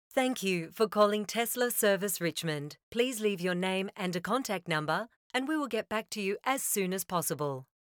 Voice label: IVR; Tesla Service Richmond